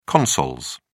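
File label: "consols", English, British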